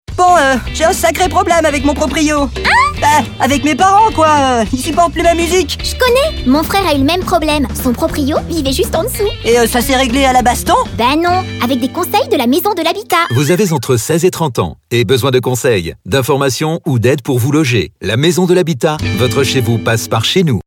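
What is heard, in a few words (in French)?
spot, spots radio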